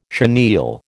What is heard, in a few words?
"chenille", American